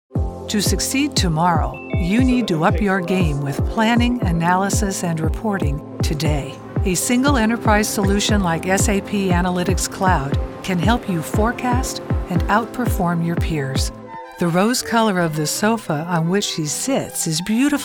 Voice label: Narration